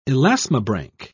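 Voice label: "elasmobranch", British English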